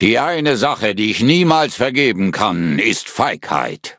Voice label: level up de; Cookie Run

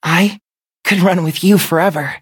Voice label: levelup en

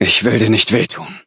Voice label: loading, de

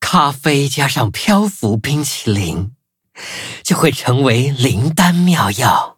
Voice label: loading, zh-hant; Cookie Run

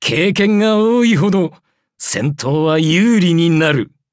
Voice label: level up, ja

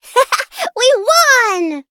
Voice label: victory, en; cookie run

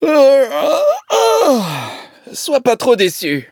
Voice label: defeat, fr; Cookie Run

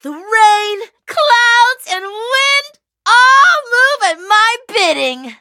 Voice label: loading, en; Cookie Run

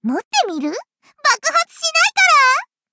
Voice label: upgrade, ja; cookie run

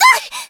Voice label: hit, en; cookie run

